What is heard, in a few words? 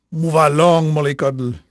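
French male, voice; Pirates Of The Caribbean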